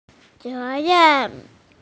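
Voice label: "responsibility", female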